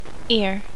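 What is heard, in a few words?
"ear", female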